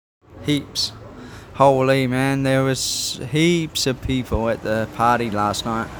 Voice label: "Heaps", New Zealand; common New Zealand slang words and phrases